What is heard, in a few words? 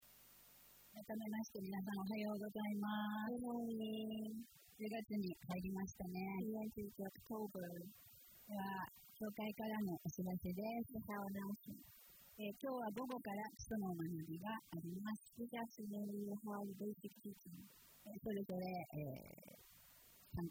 announcement; sunday message 2023